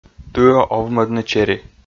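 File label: "тыӧ овмӧдны чери", Komi, male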